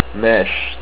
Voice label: Husband, estonian